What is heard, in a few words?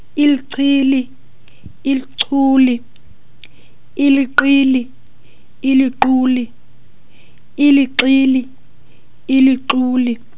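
clicks, human voice; EGG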